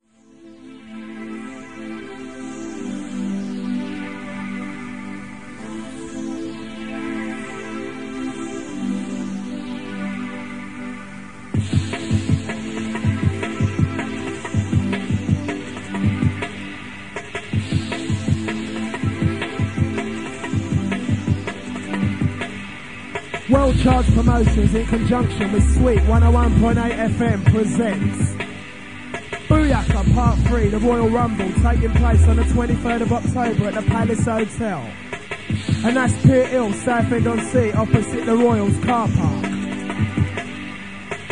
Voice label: Radio Ad; Booyakka pt III - The Royal Rumble; oldskool